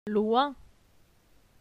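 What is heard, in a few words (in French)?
"Loin", French; phonetique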